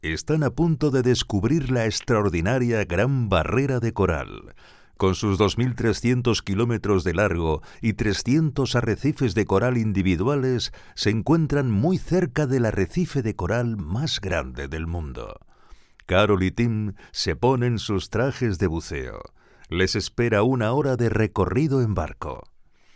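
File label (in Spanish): generico España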